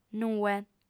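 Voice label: "nue" Sardinian, female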